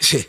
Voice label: "sheh", voice; ooh ahh

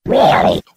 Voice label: "really", robot; Voice

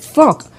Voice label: "fuck", expletives

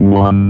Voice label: "one" robot; numbers and letters

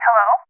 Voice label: "hello", voice; introductions

